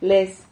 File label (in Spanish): "lez", Silabas